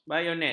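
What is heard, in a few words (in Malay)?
"bayonet", Malay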